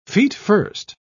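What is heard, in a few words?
"feetfirst", British English, dictionary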